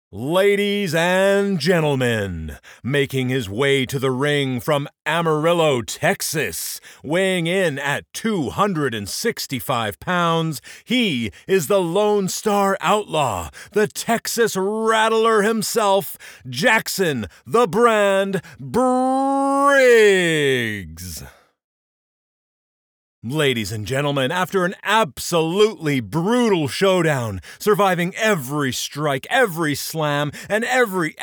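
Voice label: Wrestler Announcer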